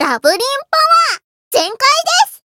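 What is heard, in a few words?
Voice Japanese; loveli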